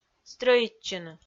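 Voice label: "стрӧитчыны", Komi, female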